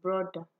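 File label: "broda", Nigerian Pidgin, female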